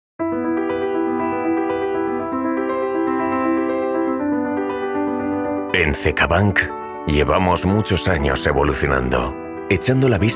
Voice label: EU Spanish VO Spot Internet; Cecabank Mas cecabank

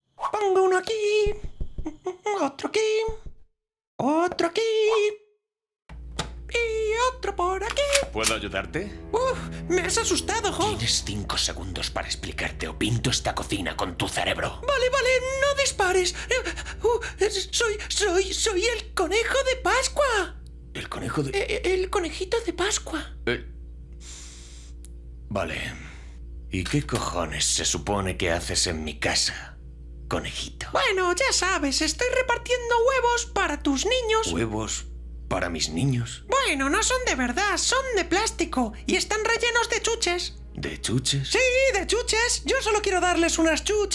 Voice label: acting Cartoon comedy Angry Father with a gun; Easter Egg

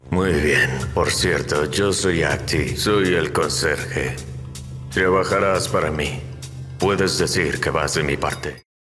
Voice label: acting Concierge with Arabic accent age 40 50

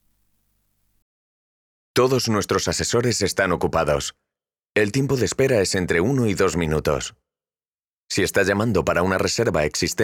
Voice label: EU Spanish VO On Hold Message

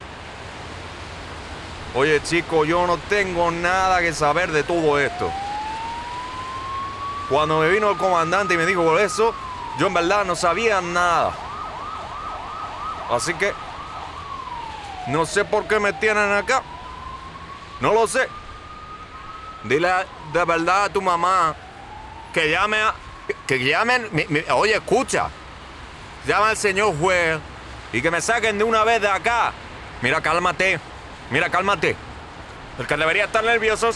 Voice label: Caribean Accent

